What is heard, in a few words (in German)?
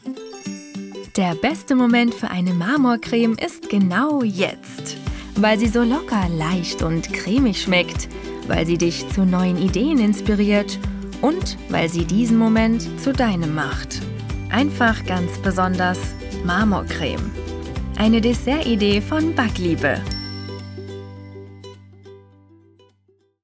Werbung